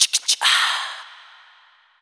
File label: VX, FUNK, Hip-Hop & R&B; USB Soundscan vol.47; AKAI